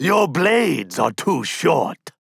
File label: Taunt Directed; Paladins; DarkLord; maeve